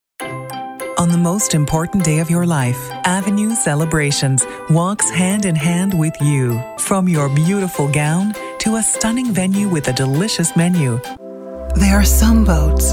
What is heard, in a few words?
Voiceover, Commercial, Women; New York